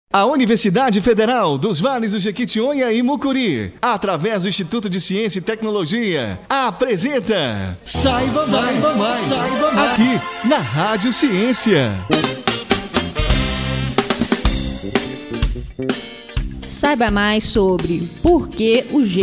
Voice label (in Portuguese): SPOT; PORQUE O GELO FLUTUA NA ÁGUA